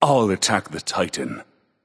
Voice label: vox self attack; smite; hades

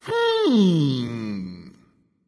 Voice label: thinking; cabrakan

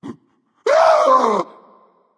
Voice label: fear; cerberus

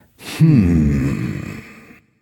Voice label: thinking; smite; kukulkan

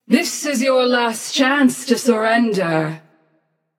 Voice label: taunt passion; chaac

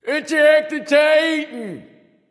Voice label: vox attack m; smite; bacchus

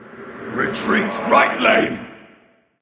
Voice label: vox retreat; smite; xingtian

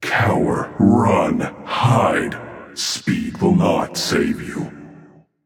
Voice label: taunt directed; smite; thanatos; mercury

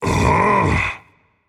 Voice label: seriously rage; chaac

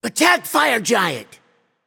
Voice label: vox attack; smite; raijin

